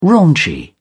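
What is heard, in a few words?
"raunchy", British English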